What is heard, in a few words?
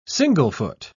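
"single-foot", British English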